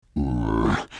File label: lion anger; dota2